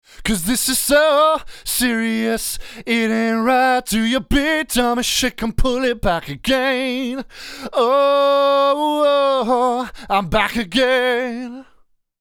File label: Male vox 160x stock